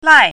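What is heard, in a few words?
"lai4", Chinese pinyin, fourth tone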